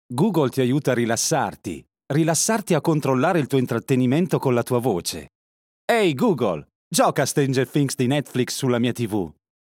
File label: ITA APP Voice Recognition; Google